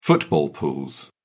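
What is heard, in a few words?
"football pools", British English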